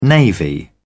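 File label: "navy", British English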